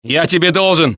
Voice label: Russian; mohaa

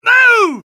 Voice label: suppress, dialogue UK; mohaa

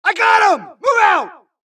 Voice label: supress, dialogue Allies; mohaa; Mission 2

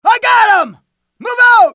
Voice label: suppress, dialogue, US; mohaa

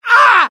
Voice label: death, UK; mohaa; dialogue

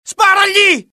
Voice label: alert, dialogue IT; mohaa; attack

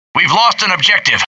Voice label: american failure; dialogue; mohaa

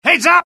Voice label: alert, dialogue UK; mohaa

